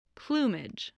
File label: "plumage", English